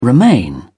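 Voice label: "romaine", English, British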